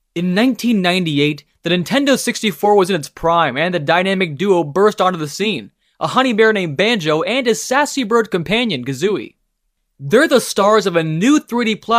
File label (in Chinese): 美式男声